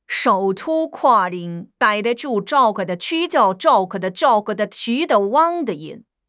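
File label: "染", Mandarin Chinese; HSK6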